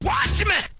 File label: "watch me", voice; single hits